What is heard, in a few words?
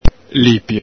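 "lipio", Venetian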